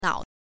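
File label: "nao", Mandarin Chinese, neutral tone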